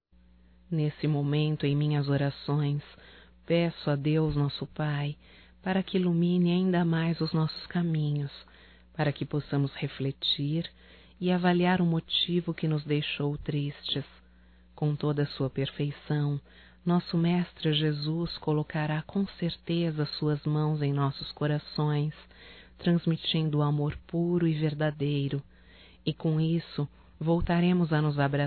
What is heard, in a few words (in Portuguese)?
DESCULPAS Irmã EM CRISTO (F); fem; Telemensagens 2017